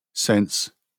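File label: "sense", British English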